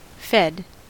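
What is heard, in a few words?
"fed", American English; pronunciations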